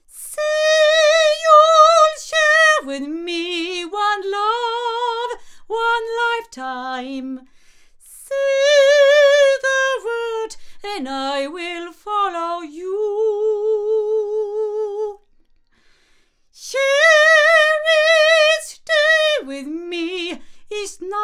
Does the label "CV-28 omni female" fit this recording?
Yes